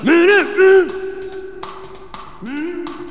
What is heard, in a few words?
mumbling